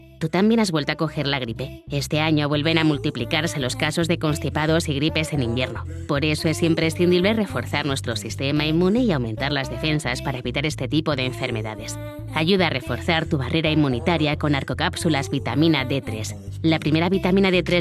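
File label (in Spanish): Demo publicidad con musica, Locutora profesional Online en español / Female VoiceOver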